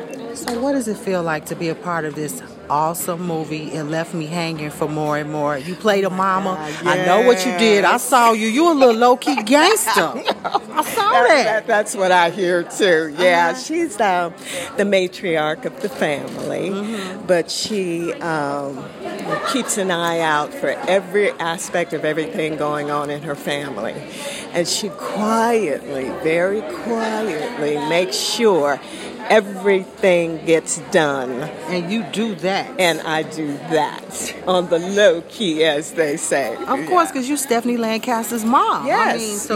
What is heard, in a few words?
MOM VOICE